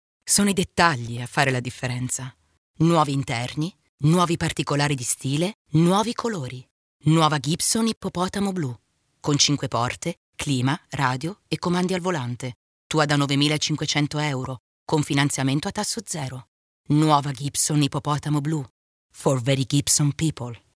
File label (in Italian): pubblicità auto istituzionale